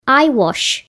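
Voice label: "eyewash", English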